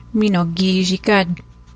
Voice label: good afternoon, ojibwe